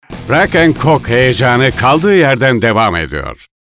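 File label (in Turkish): neseli dinamik, seslendirme; otoriter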